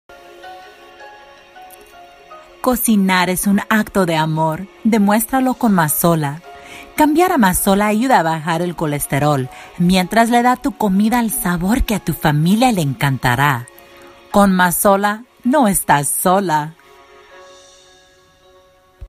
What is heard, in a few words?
Spanish Commercial Food